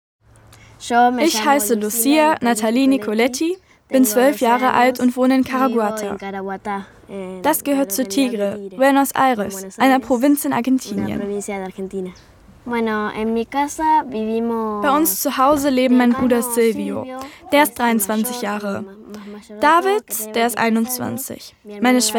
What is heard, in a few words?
VoiceOver